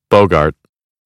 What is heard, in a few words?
"bogart", American English